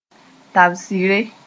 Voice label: "tab-sɩre", moore; tobacco powder

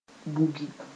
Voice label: "bugi", moore

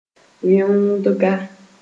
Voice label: "wẽoogdga", Moore

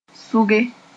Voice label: "sʋge", Moore

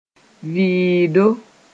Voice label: "vɩɩdo", moore

